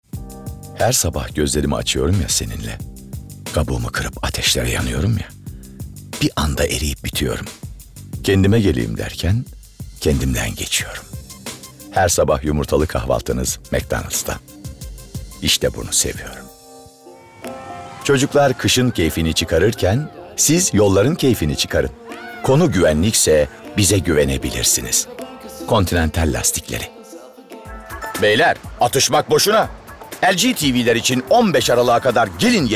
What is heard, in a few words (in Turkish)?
REKLAM